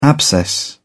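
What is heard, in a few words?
"abscess", English, British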